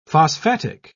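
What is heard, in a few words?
"phosphatic", British English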